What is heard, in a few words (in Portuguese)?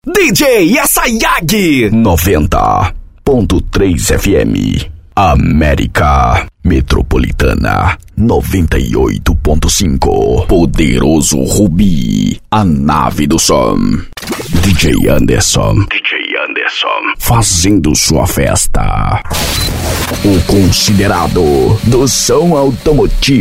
locutor